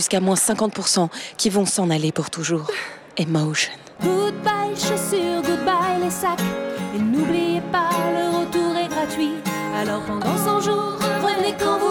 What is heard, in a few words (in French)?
pub soldes; sarenza